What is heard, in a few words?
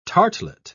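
"tartlet", British English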